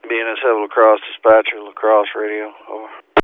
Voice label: La Crosse Radio